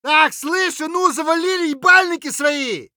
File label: silence; Escape from Tarkov; scav6